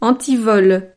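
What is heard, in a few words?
"antivol", French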